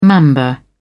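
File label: "mamba", English, uk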